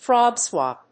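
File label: "frogspawn", English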